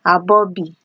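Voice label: "abobi", Nigerian Pidgin, female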